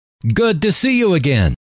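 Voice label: good To See You Again, male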